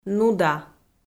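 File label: "нуда", Komi-Zyrian, female; audio dict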